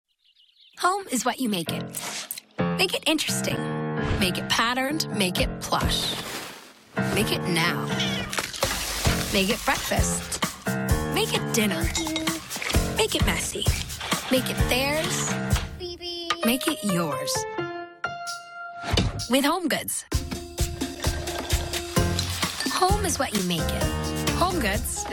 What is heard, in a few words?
commercial, women